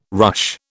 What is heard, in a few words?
"ruche", British